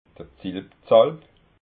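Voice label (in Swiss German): "zilpzalp", Alsatian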